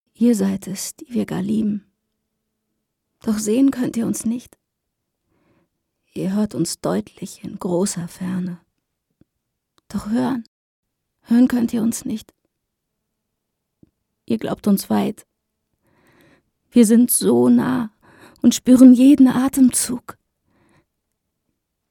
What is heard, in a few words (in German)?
traurig